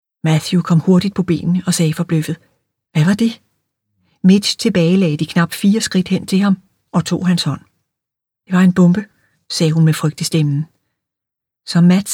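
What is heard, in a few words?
Danish, Audiobook, female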